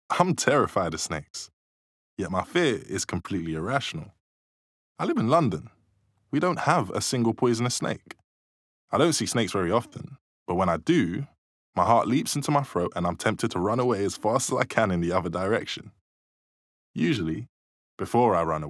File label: Audiobook Narrative; 13 Things Mentally Strong People Dont Do